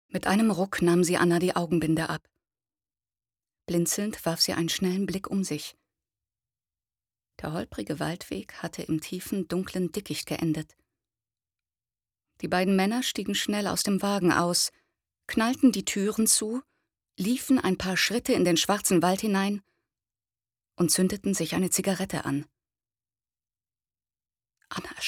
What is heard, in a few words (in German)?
Casting Hoerbuch Krimi